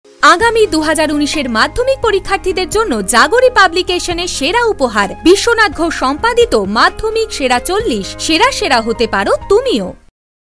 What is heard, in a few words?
TVC Sample